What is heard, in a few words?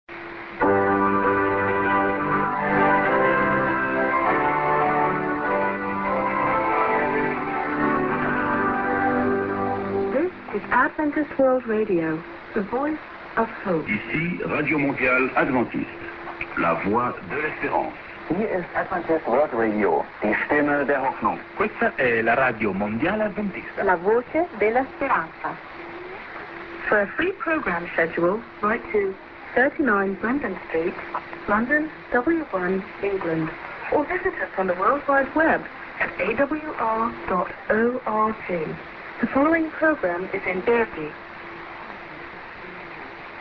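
IS->Multi Lang. ID (women+man); awr; via MOS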